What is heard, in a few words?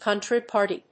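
"country party", English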